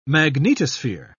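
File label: "magnetosphere", British English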